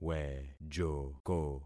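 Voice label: "wejoko", concatenated syllables; Affixing experiment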